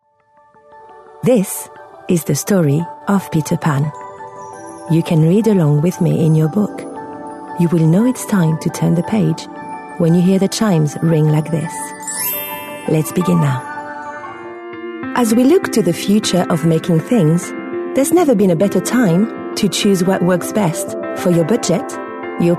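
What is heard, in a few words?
French, female, English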